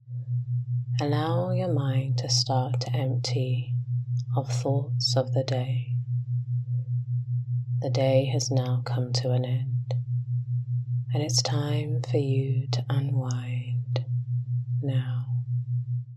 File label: Relaxation Before Sleep